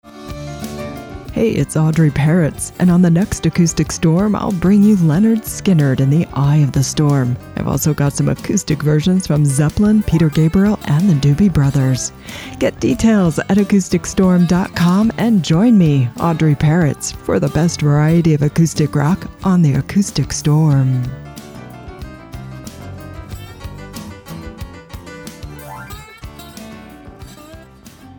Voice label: PROMO; Acoustic Storm; USRN-FM; kkcl-am